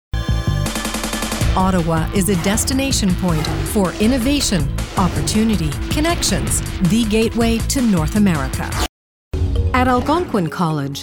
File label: Corporate